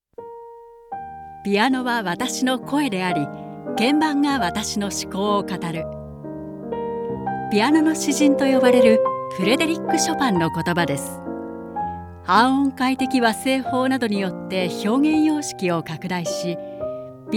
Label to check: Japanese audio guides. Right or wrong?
Right